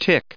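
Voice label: "tick", English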